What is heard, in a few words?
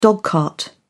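"dogcart", British English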